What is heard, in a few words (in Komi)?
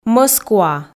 "мӧскуа", f